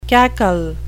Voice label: cackle